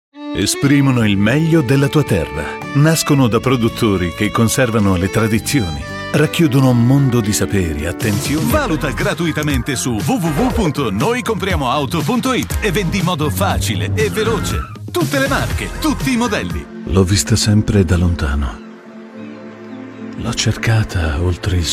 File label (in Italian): spot; DECIBEL